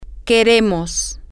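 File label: we want, Spanish, female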